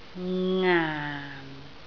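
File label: "ngaan4", Cantonese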